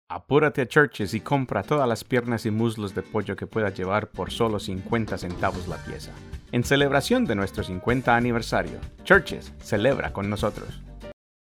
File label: Spanish